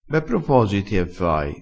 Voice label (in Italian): "be", vocabolario dialettale